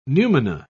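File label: "numina", English, British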